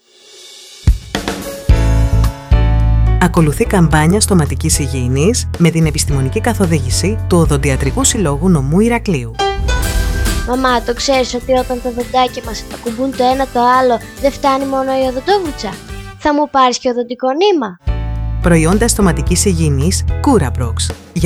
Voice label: spot; familyfm; kampania